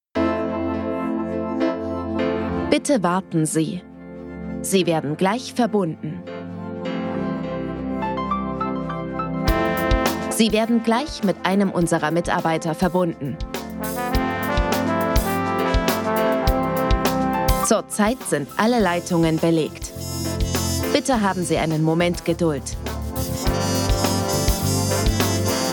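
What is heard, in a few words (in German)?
Telefonansage, sprecherin; Hörbuch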